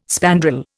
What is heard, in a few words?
"spandrel", Indian